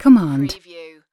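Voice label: "command", British female; lynne publishing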